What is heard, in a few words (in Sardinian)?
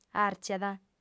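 "artziat", lingua sarda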